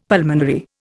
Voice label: "pulmonary", Indian; Dictionary

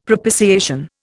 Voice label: "propitiation", Indian; Dictionary

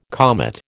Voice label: "comet", American

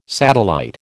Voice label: "satellite", American

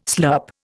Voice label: "slurp", Indian

